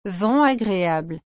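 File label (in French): "vent agreable", French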